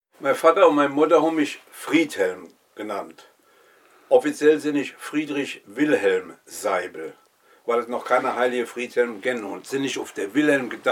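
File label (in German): stellt sich vor, O-Ton Hunsrück; Mörz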